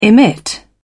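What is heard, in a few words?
"emit", British English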